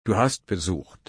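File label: "besuchen", German, slow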